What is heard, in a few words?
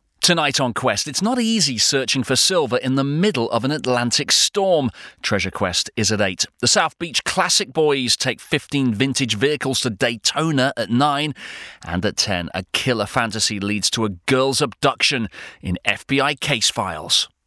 continuity example; Discovery Quest